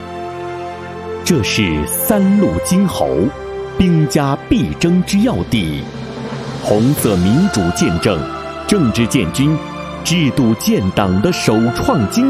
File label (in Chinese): 专题 配音